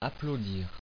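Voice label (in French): "applaudir" French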